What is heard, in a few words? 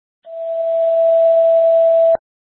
FD Dpts VHF 156.240 Patch